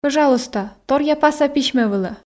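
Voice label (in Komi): Komi, пӧжалуйста торъя паса письмӧ вылӧ, female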